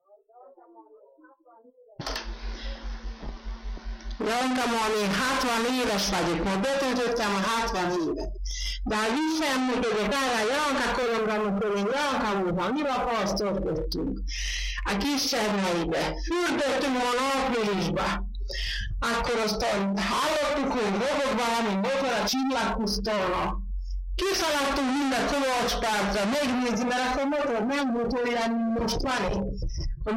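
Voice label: dialect collection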